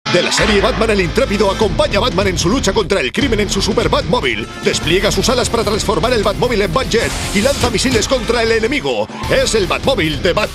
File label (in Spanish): Anuncio Juguete en castellano; Batman Batmovil Total Armor Mattel 2011